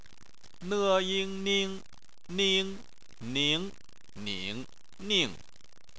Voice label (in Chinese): "ning", Chinese